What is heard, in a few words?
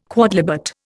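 "quodlibet", Indian English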